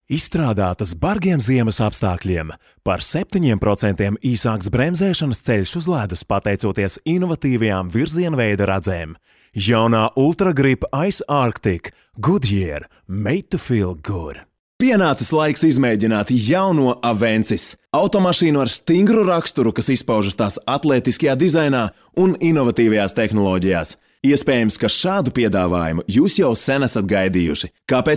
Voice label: male latvian voice over talent sales